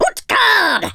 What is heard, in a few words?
bummer, speech, Viking